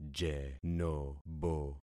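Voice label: "jenobo", concatenated syllables; Affixing experiment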